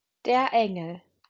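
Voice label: "Engel", German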